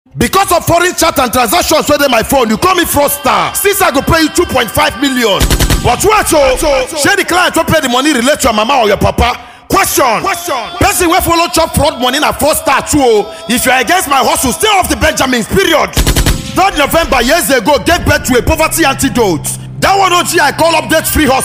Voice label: hypeman